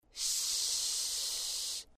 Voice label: "SH", VOX